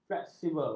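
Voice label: "fleksibel", Malay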